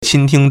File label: "qing1 ting1", Chinese